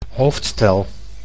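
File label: "hoofdstel", Dutch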